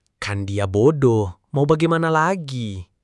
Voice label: indonesia, negative phrases